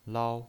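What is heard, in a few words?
"lao", pinyin, Mandarin Chinese, first tone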